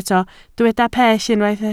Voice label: "pell", Welsh